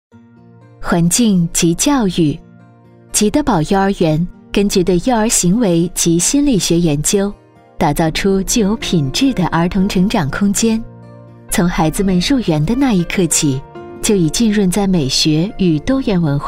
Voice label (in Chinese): 宣传片柔和幼儿园